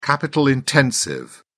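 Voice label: "capital intensive", British English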